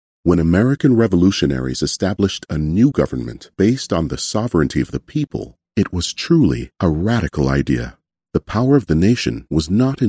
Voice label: english canadian documentaries